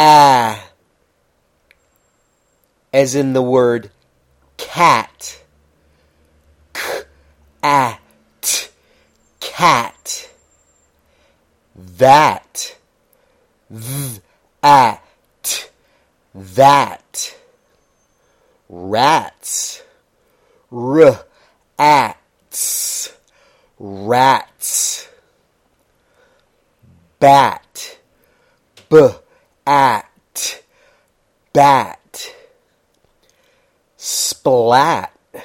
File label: short a vowel sound practice